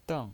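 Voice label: "deng", Mandarin Chinese, neutral tone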